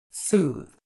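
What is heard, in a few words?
"soothe", British English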